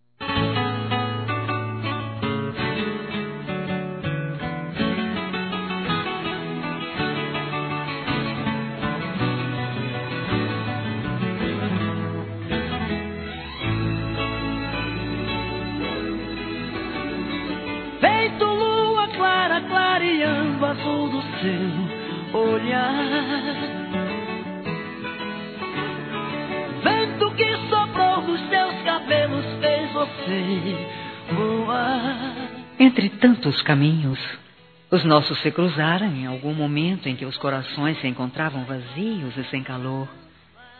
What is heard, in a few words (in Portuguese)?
Aniversário Sertanejo Romantico Suave Feminino